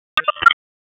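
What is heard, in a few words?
Raleigh Police North District Tactical; wake; p25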